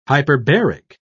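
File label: "hyperbaric", British English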